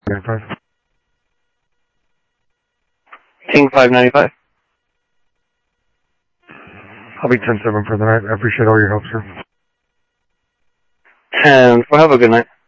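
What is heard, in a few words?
Sheriff Lake Patrol, scanner audio trunk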